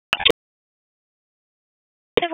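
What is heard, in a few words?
Dispatch 1, P25; WSO DISP 1; wake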